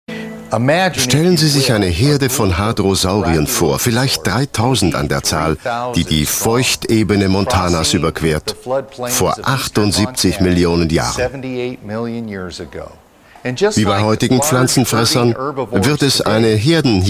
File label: Voice Over